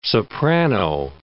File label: "soprano", English